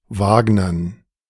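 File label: German "Wagnern"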